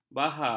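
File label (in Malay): "bahar", Malay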